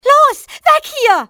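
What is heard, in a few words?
run, civ, female; XBOX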